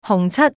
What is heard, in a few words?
slot fruits female